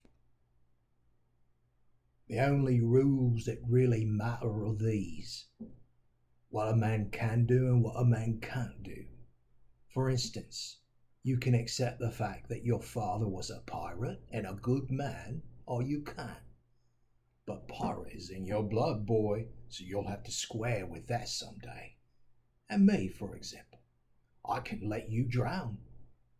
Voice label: British Cockney